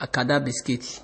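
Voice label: "acadabiscuit", Nigerian Pidgin, male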